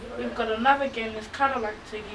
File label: English, New Zealand School Children